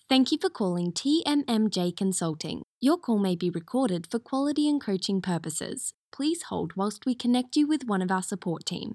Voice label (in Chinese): 外籍英女 语音系统